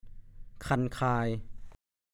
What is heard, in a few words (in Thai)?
"คันคาย", ภาษาโคราช; คันตัวเพราะฝุ่นละออง